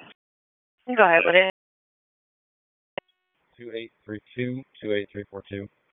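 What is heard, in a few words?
Police Southeast District Dispatch, P25; Raleigh; wake p25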